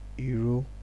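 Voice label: "iru", Nigerian Pidgin, male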